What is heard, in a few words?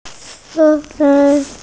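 "zhi4yu4", Mandarin Chinese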